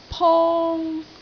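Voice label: "pong1", Cantonese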